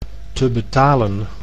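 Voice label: "te betalen", Dutch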